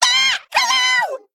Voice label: Male Mech, ERROR HAND FULL; en; AnnoyO

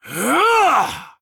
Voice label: Male Troll Attack, zh

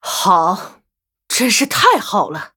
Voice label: Well Played, zh

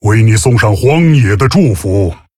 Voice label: Male Tauren Greetings, zh

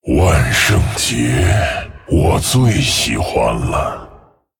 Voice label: Male Dragon HAPPY HALLOWEEN; 万圣节; 万圣节。我最喜欢了。; 风暴巨龙迦拉克隆（英雄）; Hallow's End... My favorite.; zh

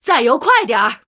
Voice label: Female Murloc Time; zh

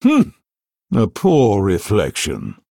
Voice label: Male Human Mirror Start; en

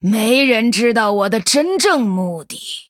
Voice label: Female Night Elf Picked, zh

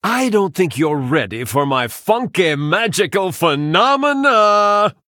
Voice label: Male Human Threaten

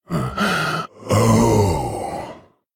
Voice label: Male Lich Thinking; en

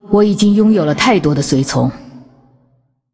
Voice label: Female Ghost ERROR FULL MINIONS, zh

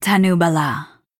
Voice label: Female Nightborne Greetings

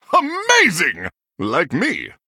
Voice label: Male Human WOW; en

